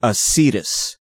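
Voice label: "acetous", English; pronunciation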